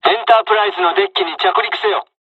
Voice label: landing, dialogs japanese; midway